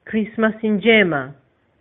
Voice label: christmas swahili